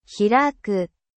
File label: hiraku open pronunciation